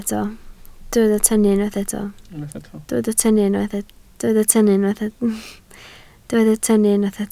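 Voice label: "tynnu" Welsh, spoken word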